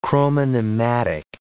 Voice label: "chromonematic", English